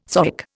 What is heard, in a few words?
"zoic", Indian